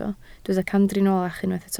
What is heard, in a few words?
"camdriniolach", Welsh